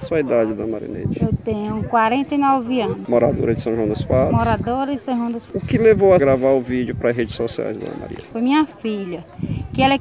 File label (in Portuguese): voz da esposa